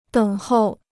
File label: "等候", Chinese